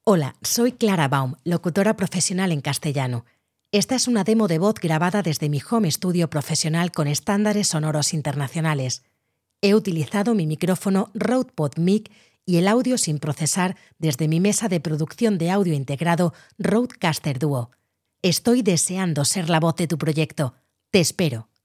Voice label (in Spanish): Audio test sin procesar